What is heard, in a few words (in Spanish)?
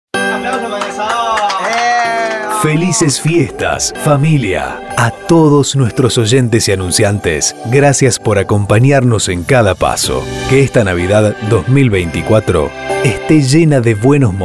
SALUDOS DE LA RADIO; fiestas; salutaciones de la radio